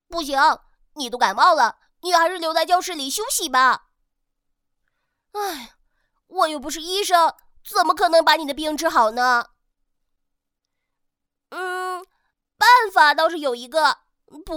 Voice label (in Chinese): 女 男童, 国语女声配音; 小博士